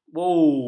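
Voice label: "boo", Malay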